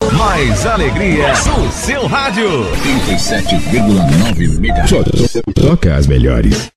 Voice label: Vinheta; radio rafa

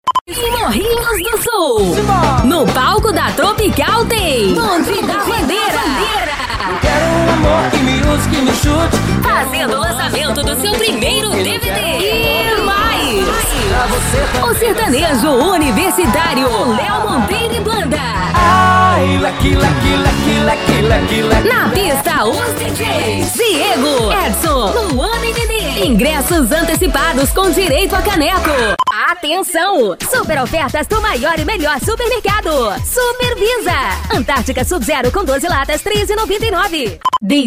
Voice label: voz jovem padrão e varejo gravações; STUDIO OCIANO SILVA

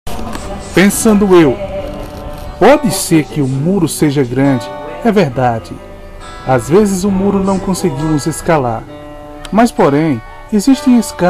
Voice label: narracao

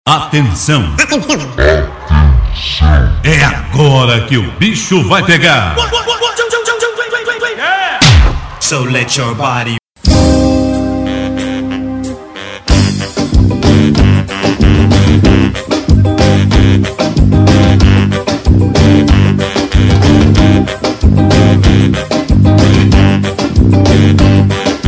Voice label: Anúncio

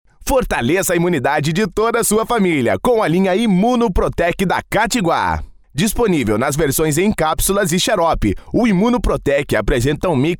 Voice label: Locução Institucional; Imuno Protec Katiguá; Banco De Vozes; Soluções Em Audio Produtora

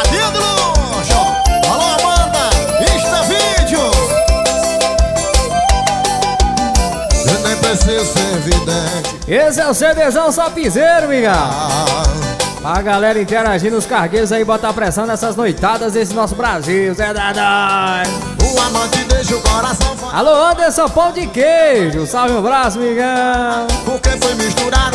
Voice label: locutor piseiro; cd piseiro

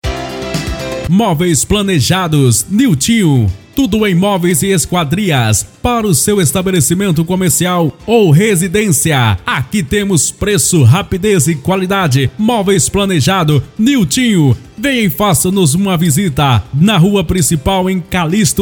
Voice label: locutor de rodeio; Movei; cavalgada

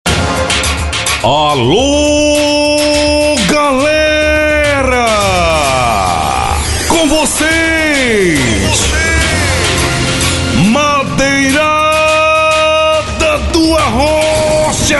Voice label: locutor fasendo abertura

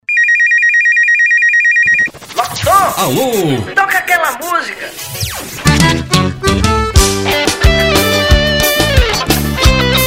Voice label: locutor

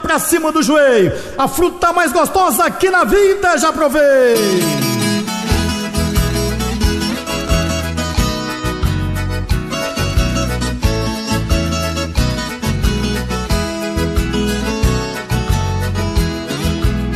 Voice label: Verso Jeito Caipira

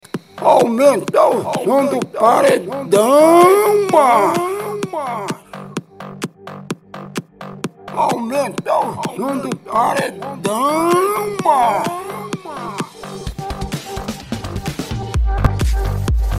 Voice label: narracao da vinheta aumenta o som do paredao macho

